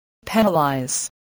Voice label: "penalize", English, voice